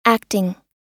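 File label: "acting", US English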